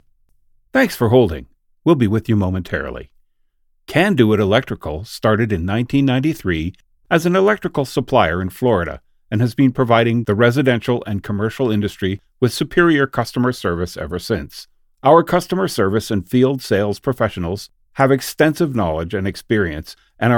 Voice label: Voice Message Demo

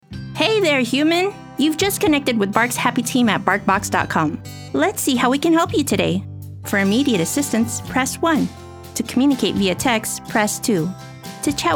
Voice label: telephony

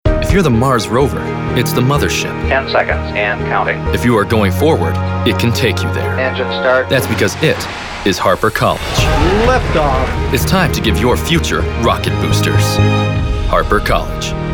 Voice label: Commercial

